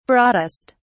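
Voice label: "broadest", British English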